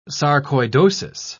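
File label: "sarcoidosis", British English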